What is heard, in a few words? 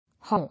"Whore", English